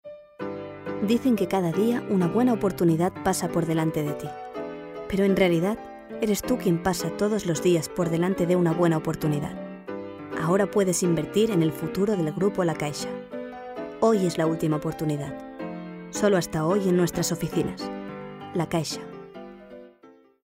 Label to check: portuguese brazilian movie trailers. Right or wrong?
Wrong